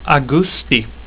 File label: "augusti", swedish